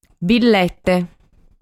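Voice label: "Billete", Sardinian word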